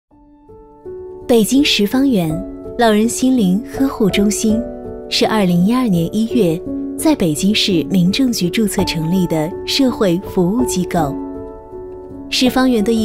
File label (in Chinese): 女,专题,温馨讲述,养老院; 十方缘养老院